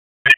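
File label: Dispatch 1, P25; wake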